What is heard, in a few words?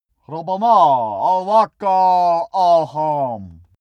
male pray arabian